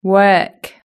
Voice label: "work", british english